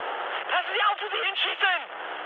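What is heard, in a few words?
German player friendly fire mood high, speech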